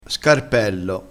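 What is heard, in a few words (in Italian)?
"scarpello", dialetto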